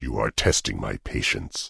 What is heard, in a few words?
Tauren Male Warrior NPC Pissed